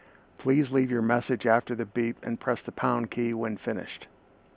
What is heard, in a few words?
Voice Recording Message